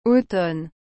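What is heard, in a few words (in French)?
"automne", French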